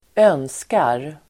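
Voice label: "önskar", Swedish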